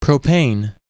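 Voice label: "propane", US English; pronunciations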